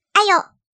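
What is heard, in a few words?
Ai Yo, Chinese; 人聲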